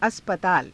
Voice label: "aspataala", hindi; [男]病院; अस्पताल